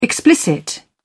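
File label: "explicit", English, British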